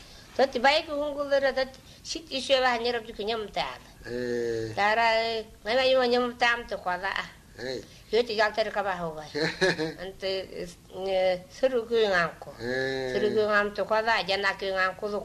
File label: nganasan